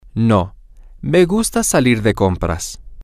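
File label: No I like to go out to shop, Spanish, male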